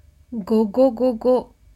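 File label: "gogogogo", Japanese; ゴゴゴゴ – gogogogo; manga onomatopoeia sound effects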